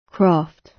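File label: "craft", British; Life, leisure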